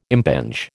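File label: "impinge", American English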